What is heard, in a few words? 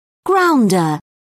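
"grounder", British English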